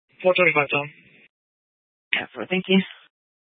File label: Police Dispatch